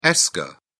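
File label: "esker", British English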